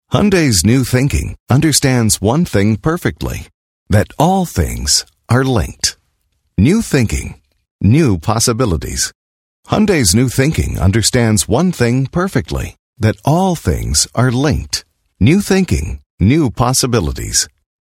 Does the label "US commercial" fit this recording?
Yes